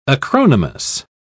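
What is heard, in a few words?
"acronymous", British English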